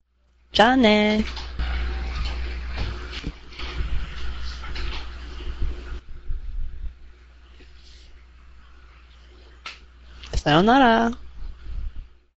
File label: Japanese Return Telephone Call